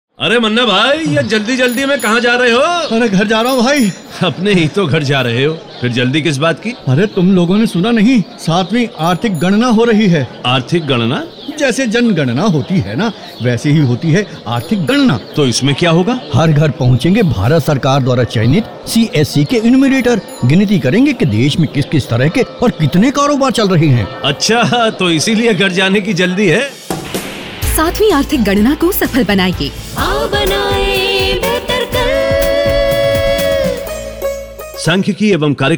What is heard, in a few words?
Hindi Radio Jingle; economic census; seventh economic census